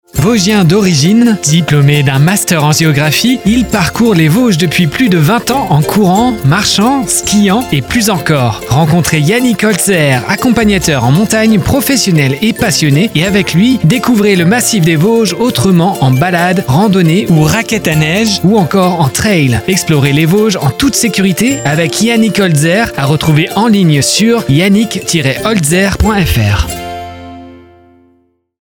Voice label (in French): spot pub